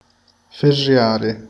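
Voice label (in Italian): "girare", Italian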